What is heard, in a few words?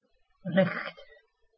"riht", Anglo Saxon